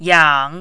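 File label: "yang3", mandarin, dipping tone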